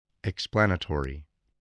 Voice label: "explanatory", voice

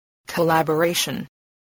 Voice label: "collaboration", voice

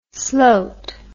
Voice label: "slote", words

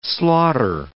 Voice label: "slaughter", English

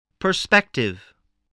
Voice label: "perspective", voice, English

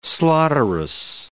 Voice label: "slaughterous", English

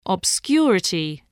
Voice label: "obscurity", voice, spelling

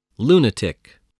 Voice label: "lunatic", spelling voice

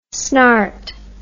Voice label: "snart", English

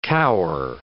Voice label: "cower", English

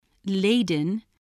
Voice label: "laden", English

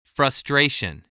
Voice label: "frustration", English voice, spelling

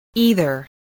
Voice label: "either", English

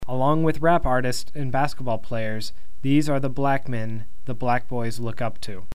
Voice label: Along with rap artists and bas, dictation

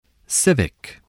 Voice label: "civic", English, voice

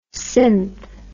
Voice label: "synth", English

